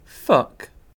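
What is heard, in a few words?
"fuck", British English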